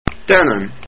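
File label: thanks, Estonian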